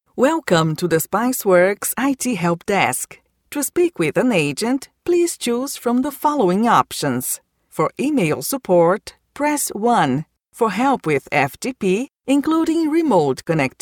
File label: English secondary, bilingual